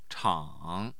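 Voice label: "chang", Chinese, third tone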